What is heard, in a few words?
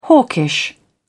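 "hawkish", British English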